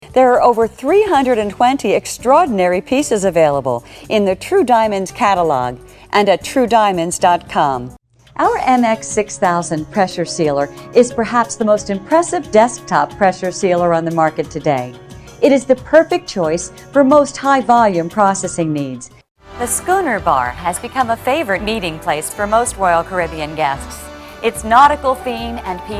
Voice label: Spokesperson Voiceover